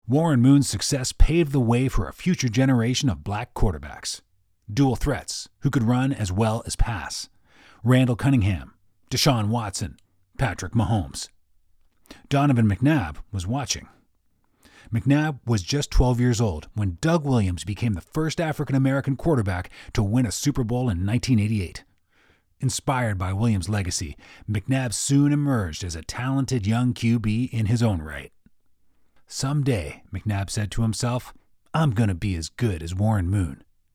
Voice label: english canadian documentaries